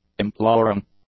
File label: "imploring", English; adjectives